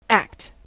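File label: "act", American English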